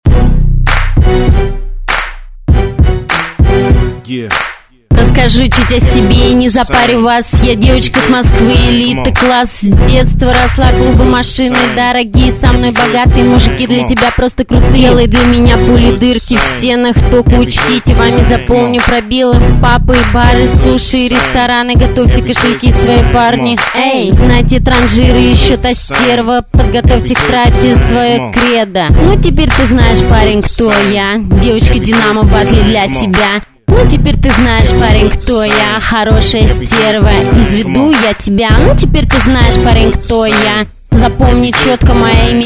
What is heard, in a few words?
battle rap